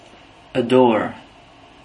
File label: "a door", English